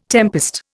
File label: "tempest", Indian